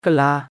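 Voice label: "ကုလား", Burmese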